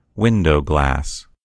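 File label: "window glass", American English; gcompris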